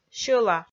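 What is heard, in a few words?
"сьӧла", Komi, female; kpv audio dict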